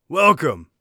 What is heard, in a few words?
welcome, warrior voice; Warrior Voice Pack